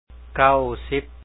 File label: 90, Lao; ເກົ້າສິບ; kàw-síp